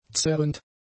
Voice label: "zerrend", German; adjectives

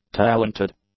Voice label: "talented", English; adjectives

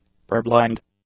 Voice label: "purblind", english; adjectives

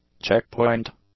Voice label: "checkpoint", English; nouns

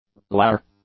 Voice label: "laugher", English

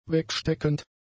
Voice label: "wegsteckend", German; adjectives

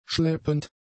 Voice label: "schleppend", German; adjectives

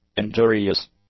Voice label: "injurious", English; adjectives

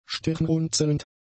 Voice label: "stirnrunzelnd", German; adjectives

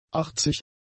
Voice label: "achtzig", german; adjectives

